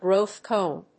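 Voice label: "Growth Cone", English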